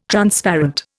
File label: "Transparent", Indian